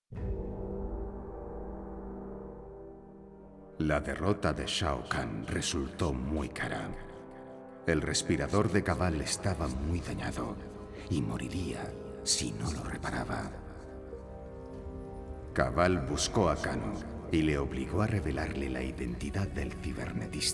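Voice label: music voice-over endings Spanish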